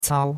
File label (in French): "cao1", chinois; Cours-de-Chinois